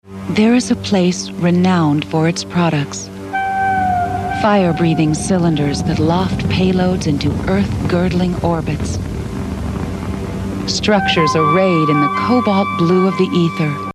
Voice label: us narration soft